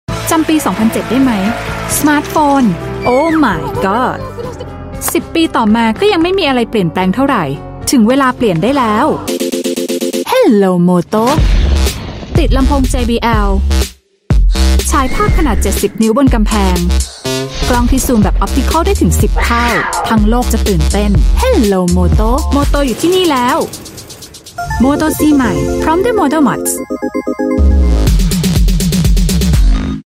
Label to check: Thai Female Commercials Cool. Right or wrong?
Right